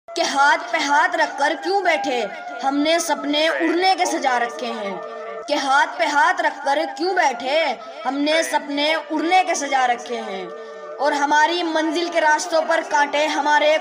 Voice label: High Level Attitude Ringtone